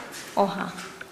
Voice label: "break", Nukuoro; transitive